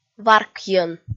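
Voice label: "варкйӧн", Komi, female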